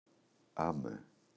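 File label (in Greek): "άμε-αμέτε", Greek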